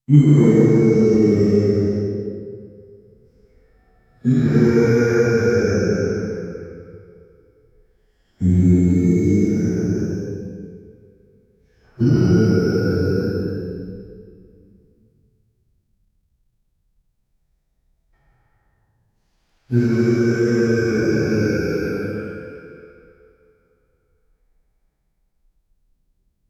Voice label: background voice for man, narration